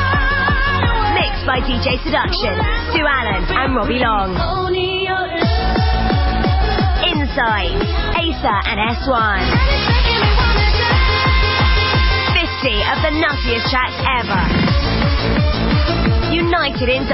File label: Pirate Radio Ad, Happy Hardcore; Hardcore Nation